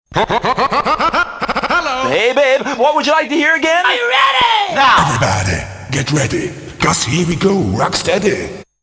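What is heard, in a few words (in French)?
Docteur Chaberly francais; kermis; Sound Around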